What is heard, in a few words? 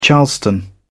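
"charleston", British English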